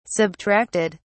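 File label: "subtracted", British English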